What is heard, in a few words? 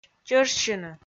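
"чӧжсьыны", Komi, female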